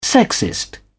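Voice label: "sexist", British English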